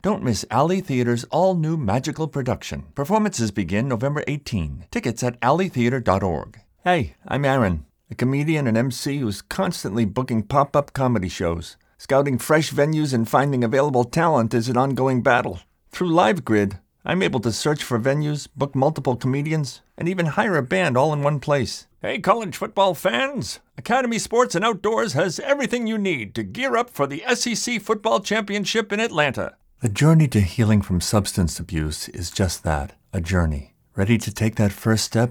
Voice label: Dry

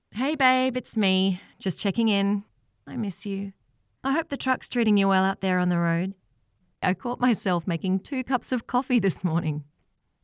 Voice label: Road Safety Ad

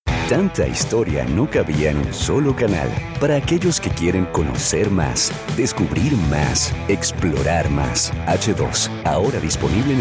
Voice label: TV imaging

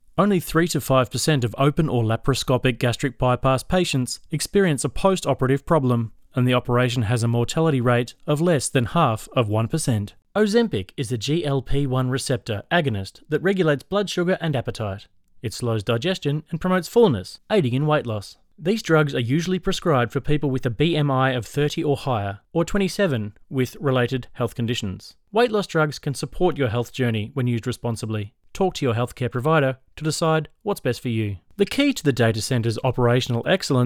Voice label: NARRATION MED; RAD BEE

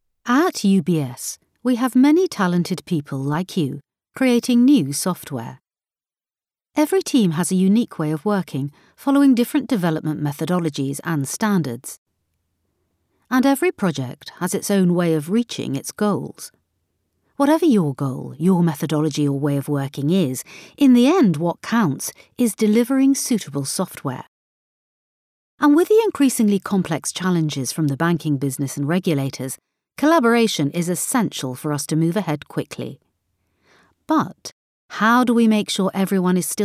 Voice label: E Learning

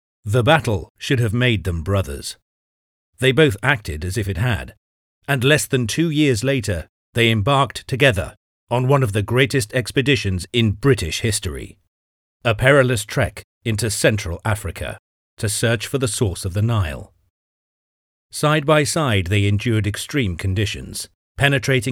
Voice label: Narration

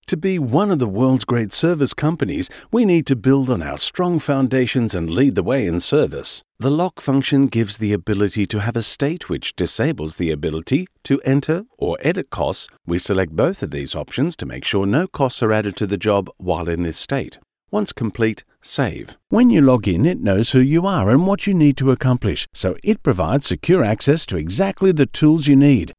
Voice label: English Dry Voice

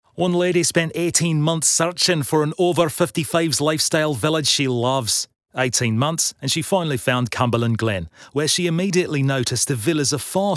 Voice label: accents

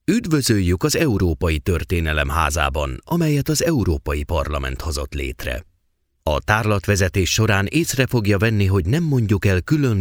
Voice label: audioguide

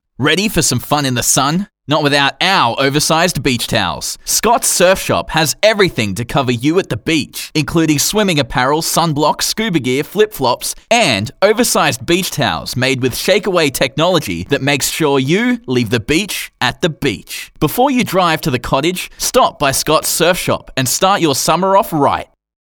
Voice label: Excited Surf Shop Commerical

